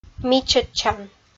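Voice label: "мичӧдчан" Komi, female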